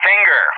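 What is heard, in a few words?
"Finger", English; JTAC; Callsign; DCS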